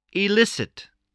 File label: "elicit", English